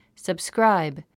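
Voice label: "subscribe", English word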